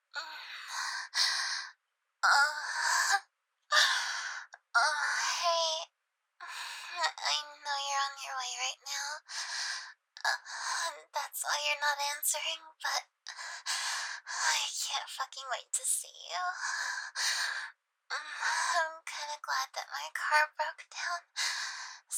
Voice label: VOICEMAIL